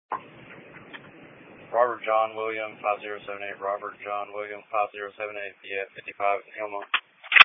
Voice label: Police Patrol 1 (Dispatch)

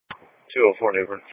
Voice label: Police Patrol, Dispatch

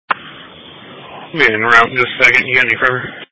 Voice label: EMS Dispatch

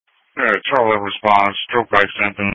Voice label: EMS Dispatch

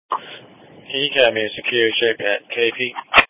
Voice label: Police Patrol 1 (Dispatch)

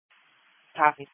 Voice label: EMS Dispatch

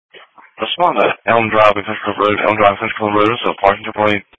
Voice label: Police Patrol 1 (Dispatch)

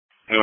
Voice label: EMS Dispatch

